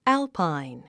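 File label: "alpine", English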